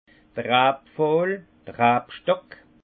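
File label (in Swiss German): "rabpfohl", alsace Haut-Rhin; pied-de-vigne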